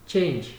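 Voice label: "change", Nigerian Pidgin, male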